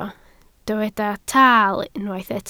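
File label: "taal" Welsh, spoken word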